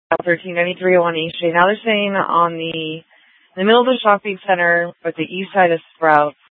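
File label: police dispatch, Scottsdale PD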